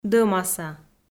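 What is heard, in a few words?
"дӧмаса", Komi, female; kpv audio dict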